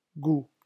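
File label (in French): "gout", French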